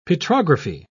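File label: "petrography", English, British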